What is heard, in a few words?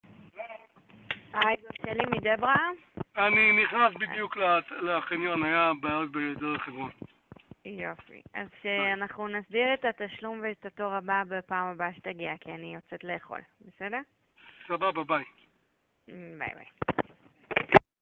call recording